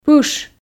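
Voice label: "пыш", Komi, female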